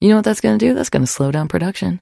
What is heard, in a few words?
trader monolog; 商人杰恩（七日杀）